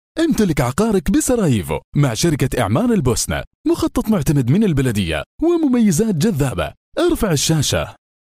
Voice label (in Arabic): اعلان صوت رجالي